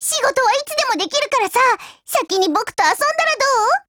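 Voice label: DIALOGUE Japanese; MP446Mod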